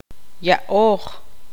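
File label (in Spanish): "dar", Tz'utujil, female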